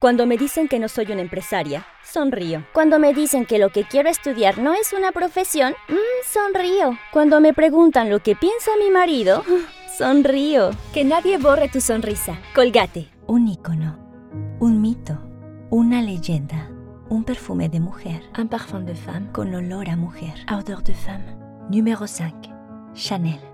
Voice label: Demo comercial Latin American Neutral Spanish